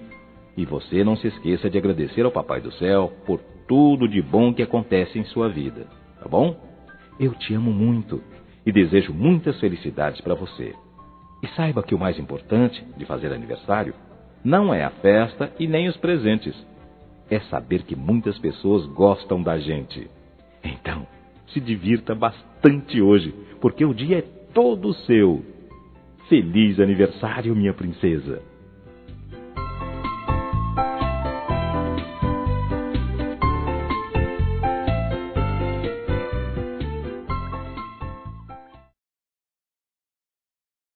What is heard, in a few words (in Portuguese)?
Filha Criança Masculino; Aniversários; Aniv Familiar